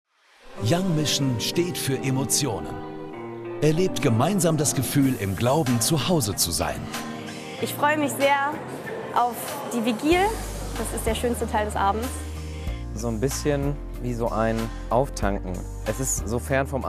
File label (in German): Sprachnachricht; YOUNG MISSION; Auftanken